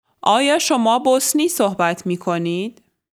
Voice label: Farsi, Sprechen Sie Bosnisch?; آیا شما بوسنی صحبت می‌کنید؟